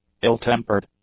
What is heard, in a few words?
"ill-tempered", English; adjectives